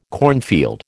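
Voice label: "cornfield", American English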